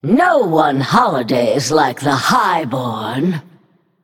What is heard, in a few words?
Female Naga HAPPY HOLIDAYS